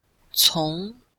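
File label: "cong2", Chinese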